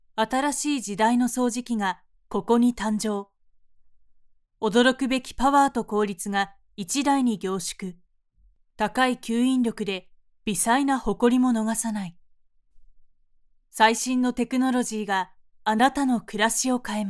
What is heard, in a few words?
female; 落ち着いた